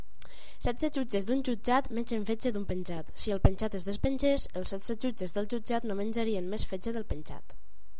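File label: tongue twister catalan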